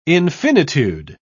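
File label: "infinitude", British English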